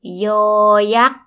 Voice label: yoor yagg, Thai